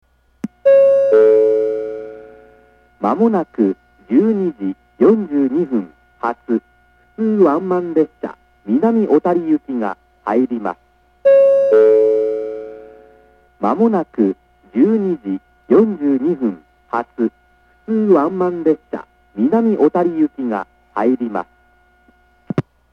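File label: track 1 down approach advance notice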